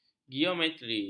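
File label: "geometri", Malay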